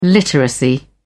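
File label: "literacy", British English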